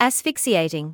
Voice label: "asphyxiating", medical english

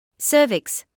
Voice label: "cervix", English

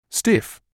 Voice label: "stiff", English

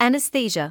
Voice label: "anaesthesia", English

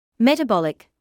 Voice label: "metabolic", medical English

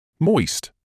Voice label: "moist", English

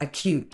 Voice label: "acute", Medical English

